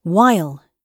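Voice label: "while", British English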